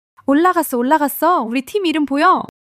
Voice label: excitement; monologue